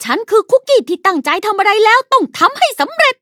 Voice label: level up, th; Cookie Run

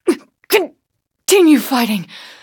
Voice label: hit, en; Cookie Run

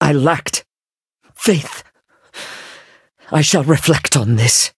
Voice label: defeat, en; Cookie Run

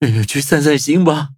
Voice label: defeat, zh-hant; cookie run

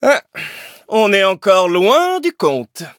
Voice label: levelup, fr; Cookie Run

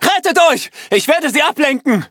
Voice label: defeat, de; Cookie Run

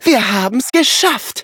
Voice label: victory, de; cookie run

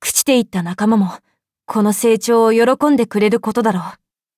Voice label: levelup ja; Cookie Run

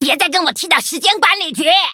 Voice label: loading, zh-hant; cookie run

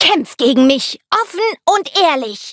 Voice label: loading, de; cookie run

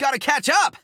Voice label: defeat, en; Cookie Run

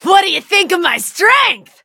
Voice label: victory, en

